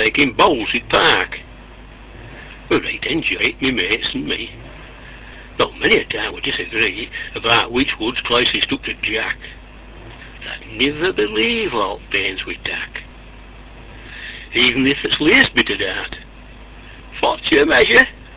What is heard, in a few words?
bowlin, yorkshire dialect